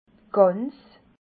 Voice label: "gans", Alsace

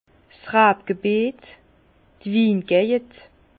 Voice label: "rabgebiet", Bas Rhin; vin; zone vinicole

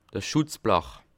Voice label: "schutzblach", Alsace

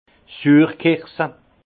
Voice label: "suurkirsche", alsace; vin; griotte

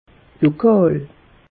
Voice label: "lokal", alsace; meteo

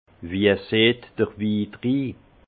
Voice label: "seht drwidrii", alsace; vin; aspect du vin

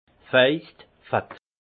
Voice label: "faist", alsace; vin; gras

